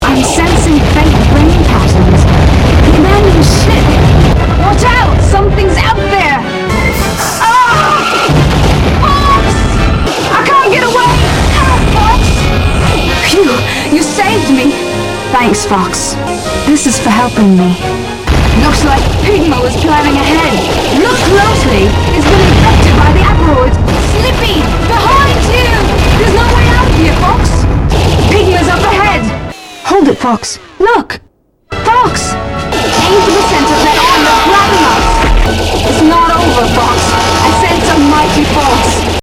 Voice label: English; Assault; Asteroid Belt